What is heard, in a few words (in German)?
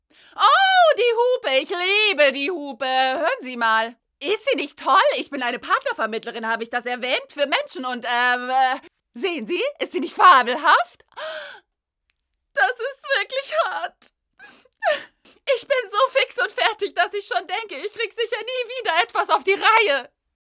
Voice Acting Aufgedreht